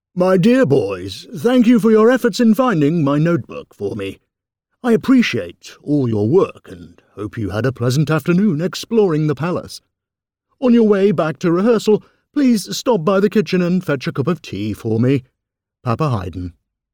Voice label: voiceover audioguide character; audioguides; papa haydn

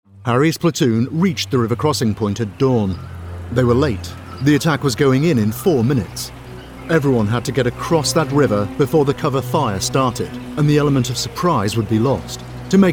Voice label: narrative character; voiceover; character voices; harrys platoon

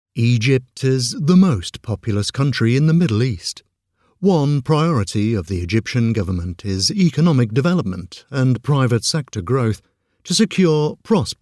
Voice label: voiceover narrative educational

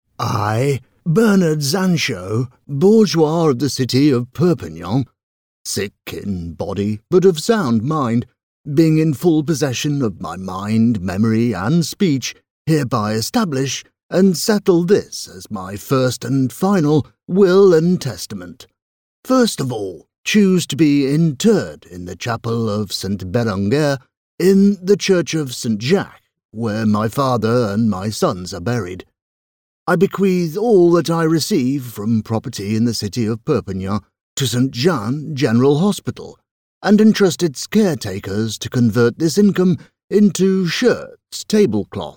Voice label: voiceover narrative audioguide talking display; bernard xanxo

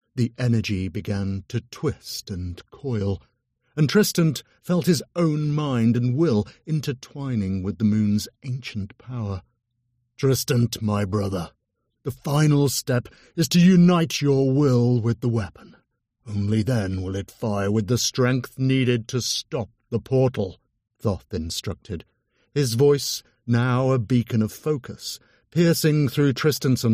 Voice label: Science Fiction, audiobooks; British

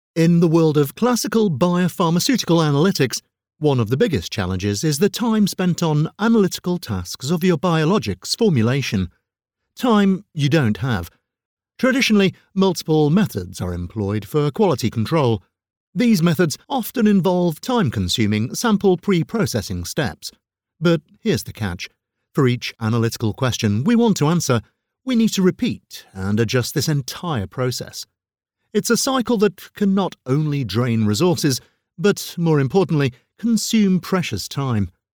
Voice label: voiceover medical bio pharmaceutical procedures

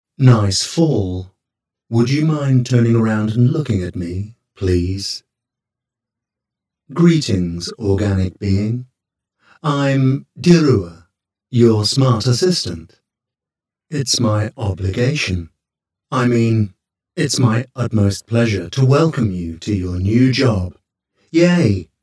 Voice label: Sarcastic Robot Assistant

